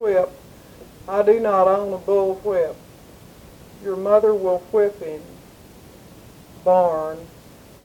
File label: Personal history and reading by 49 year old white female Texas; american english dialect